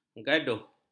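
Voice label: "gaduh", Malay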